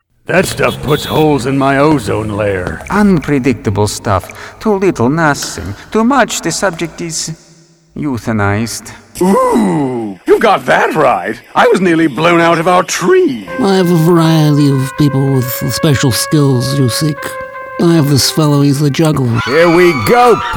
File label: Animation